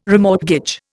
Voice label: "remortgage", Indian

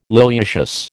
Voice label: "liliaceous", American

